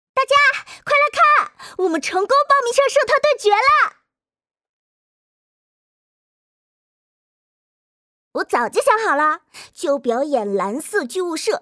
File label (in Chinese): 少女; 蓝色莫比